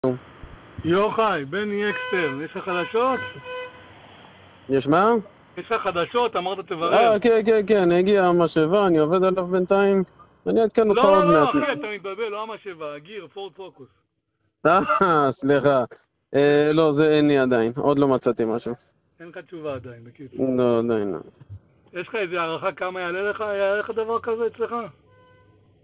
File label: Call recording